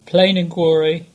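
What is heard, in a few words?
"Plain an Gwarry", English; place-names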